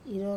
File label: "ro", to sing, wamesa